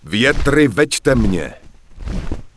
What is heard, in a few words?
Human Male Wait Here; wowpreklad; czwow; HumanVocalMale